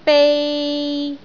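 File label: "bei3", Cantonese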